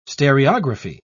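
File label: "stereography", British English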